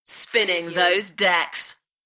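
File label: UK female, DJ vocals; beanstalk-audio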